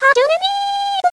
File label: cheer, voice commands; svencoop; Miku